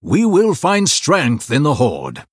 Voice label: Male Blood Elf mirror start

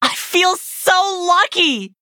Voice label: Nonbinary Night Elf Victory

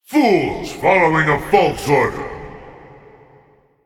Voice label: Male Demonic Titan Play

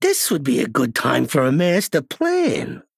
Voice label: Male Goblin Thinking

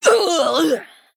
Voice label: Female Draenei Death

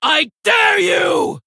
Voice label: Female Fel Orc Attack

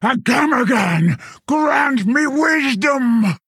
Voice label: Female Quilboar Idle; Sangtusk; Kurtrus

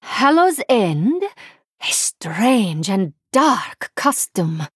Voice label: Female Draenei HAPPY HALLOWEEN

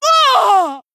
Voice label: Female Human Death

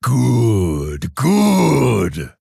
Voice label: Male Orc Well Played; Hero

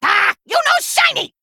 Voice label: Female Kobold Attack

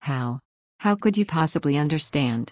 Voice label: Female Human; Cariel; Scabbs; Mission9 Exchange

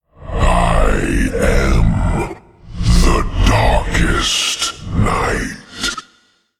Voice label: Male Elemental

